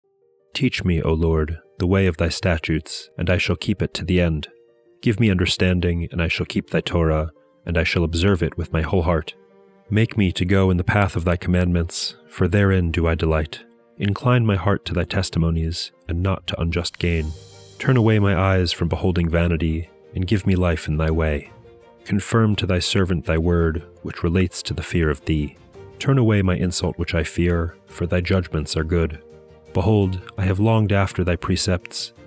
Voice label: poetry and prayer psalm english